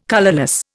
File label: "colourless", Indian